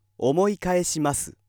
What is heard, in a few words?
male